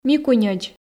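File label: "микуньӧдз", Komi, female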